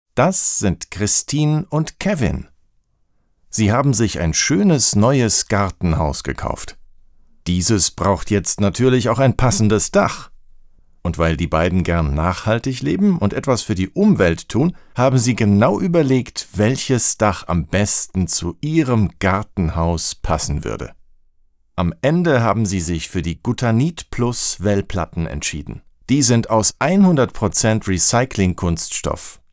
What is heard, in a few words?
german tv shows; actor de doblaje profesional